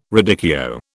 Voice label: "radicchio", British

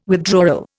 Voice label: "withdrawal", Indian

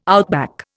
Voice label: "outback", Indian

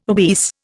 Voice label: "obese", Indian

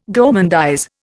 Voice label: "gourmandise", Indian

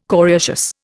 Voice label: "coriaceous", Indian

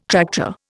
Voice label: "tractor", Indian

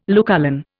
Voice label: "Lucullan", Indian

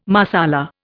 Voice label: "Marsala", Indian

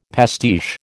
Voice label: "pastiche", American

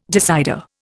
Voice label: "decider", Indian

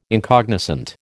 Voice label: "incognizant", American

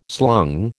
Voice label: "slung", American

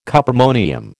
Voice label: "cuprammonium", American

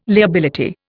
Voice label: "liability", Indian

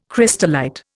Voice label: "crystallite", Indian

